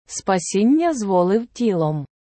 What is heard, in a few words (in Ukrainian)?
спасіння зволив тілом, Prononciation; spasinnia zvolyv tilom; SLAVA OTTSIU I SYNU